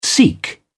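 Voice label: "sikh", British English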